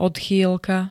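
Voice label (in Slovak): "odchýlka", Slovak; odchýlka -y -lok ž.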